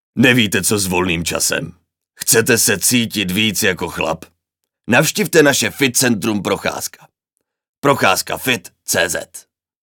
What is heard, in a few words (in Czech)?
reklama; Sherlock Holmes